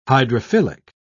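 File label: "hydrophilic", British English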